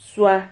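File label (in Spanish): "zua", Spanish syllable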